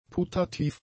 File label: "putativ", German; adjectives